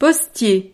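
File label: "postier", French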